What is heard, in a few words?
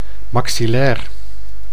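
"maxillair", Dutch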